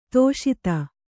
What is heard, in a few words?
"ಧಾಷತ್ತು", Kannada